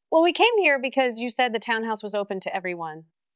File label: Voice Acting es F